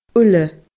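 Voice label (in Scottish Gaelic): "uile", Scottish Gaelic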